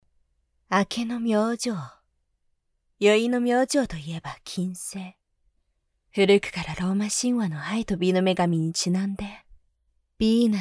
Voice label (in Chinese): 外籍日语女 剧情类旁白